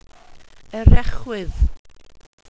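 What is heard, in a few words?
"erechwydd", welsh pronunciations; Wales/welsh names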